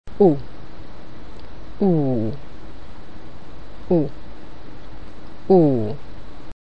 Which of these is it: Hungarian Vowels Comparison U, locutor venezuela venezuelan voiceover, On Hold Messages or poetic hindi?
Hungarian Vowels Comparison U